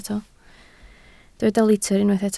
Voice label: "litr", Welsh